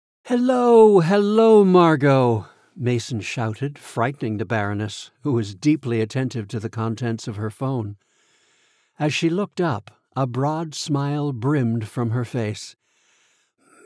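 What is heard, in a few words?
Cozy Mystery third person male female Dialogue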